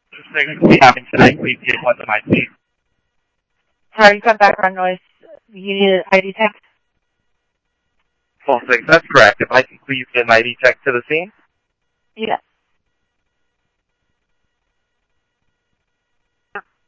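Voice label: Simulcast Chandler Patrol A, scanner; Chandler-Ptrl A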